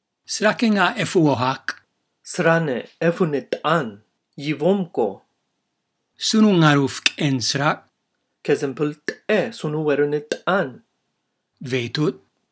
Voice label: Dialog